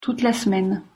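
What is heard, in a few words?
"toute la semaine", French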